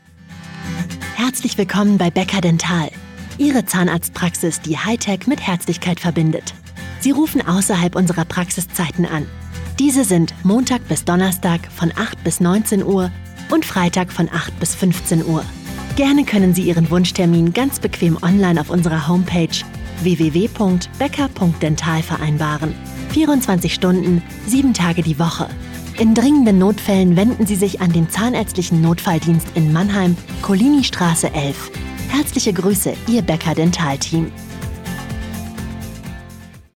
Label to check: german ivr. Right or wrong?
Right